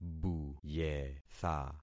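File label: "buyetha", concatenated syllables; Affixing experiment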